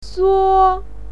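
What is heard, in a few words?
"suo", Mandarin Chinese, first tone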